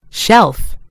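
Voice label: "shelf", British English; gcompris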